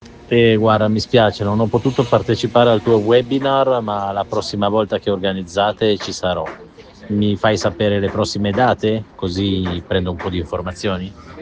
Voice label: voice message